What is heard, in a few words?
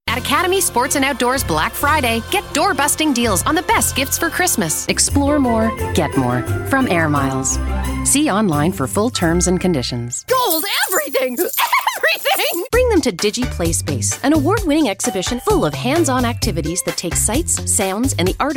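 F EN CA commercial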